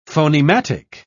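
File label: "phonematic", British English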